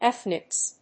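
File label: "ethnics", English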